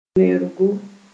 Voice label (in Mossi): "wẽorgo", Moore